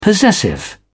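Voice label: "possessive", British English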